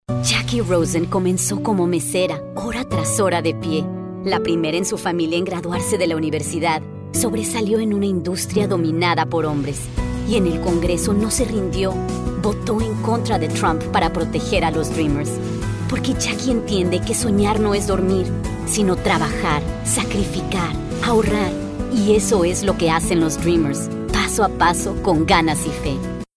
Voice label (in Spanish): Political, Spanish; Dreamers